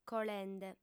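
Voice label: "colende", female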